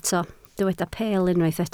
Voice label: "peel", Welsh